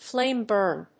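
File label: "flame burn", English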